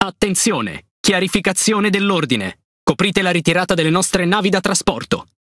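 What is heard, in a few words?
Italian message run; PVE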